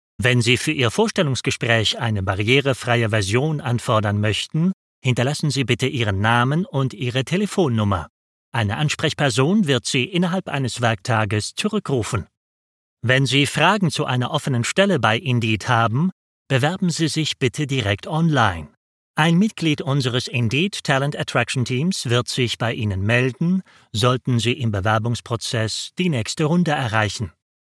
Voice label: IVR